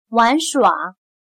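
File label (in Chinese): "玩耍", Chinese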